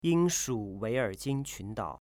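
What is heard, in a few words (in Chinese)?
"Yingshu Weierjing Qundao", Chinese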